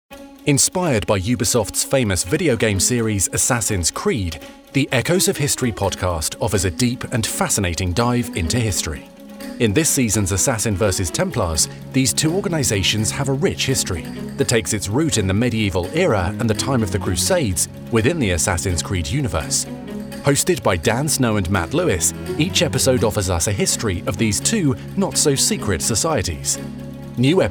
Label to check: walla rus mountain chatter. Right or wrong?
Wrong